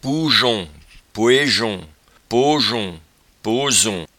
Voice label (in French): "pouejon", patois jura